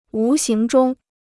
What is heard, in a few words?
"无形中", Chinese